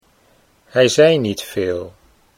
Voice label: hij zei niet veel, Dutch